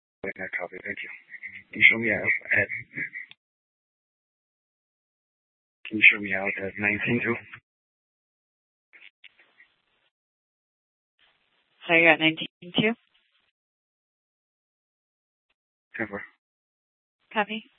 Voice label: Police Department, Dispatch